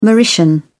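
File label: "mauritian", British English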